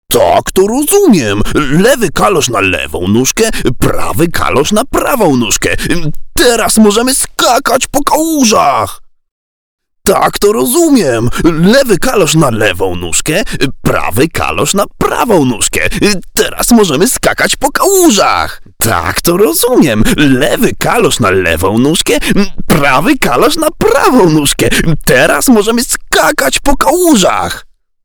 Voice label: Polish animation

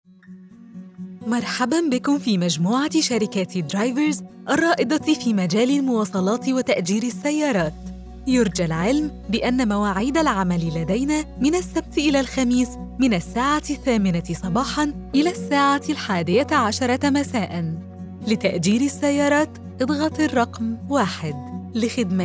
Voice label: arabic ivr

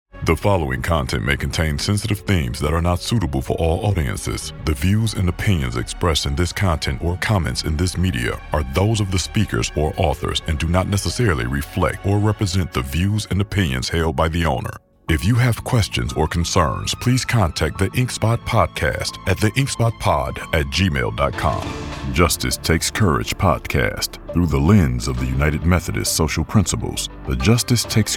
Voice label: english american podcasts, american voice actors

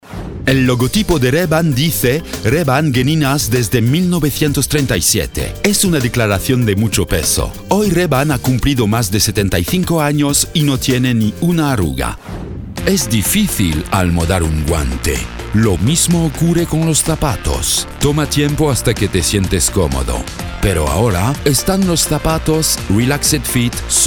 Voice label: spanish commercial

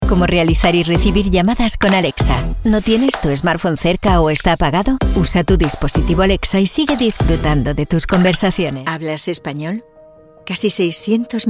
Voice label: spanish commercial; Espagnol; Espagne

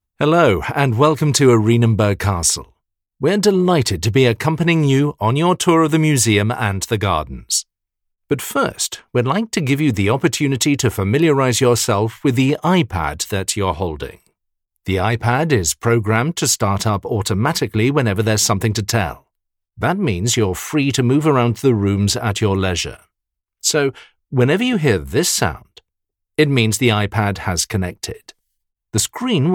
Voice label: english british audio guides

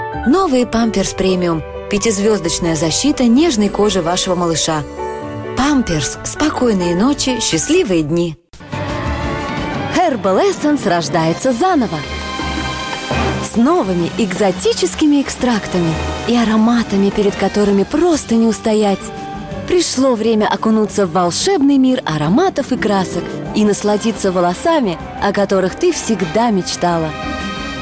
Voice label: russian commercial

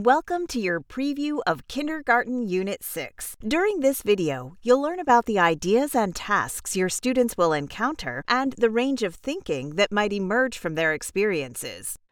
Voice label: english american e-learning

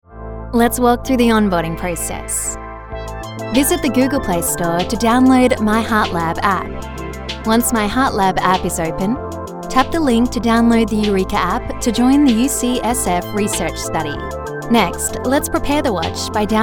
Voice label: english australian audio guides; dubladora experiente

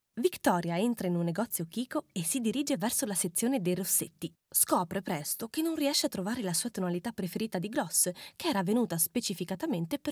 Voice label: italian narration; professionelle italienische Schauspielerin

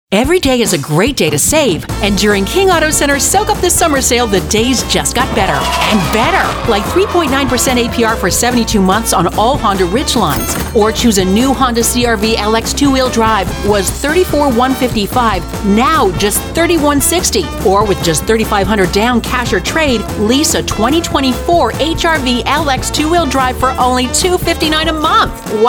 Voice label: english american automotive

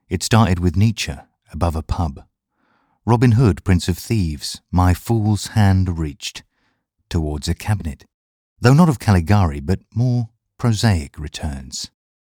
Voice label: English British narration

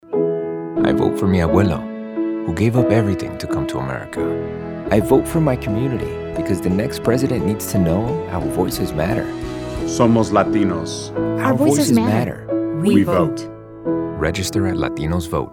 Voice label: english american political ads

